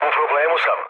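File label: Serbian aircraft damaged mood low, speech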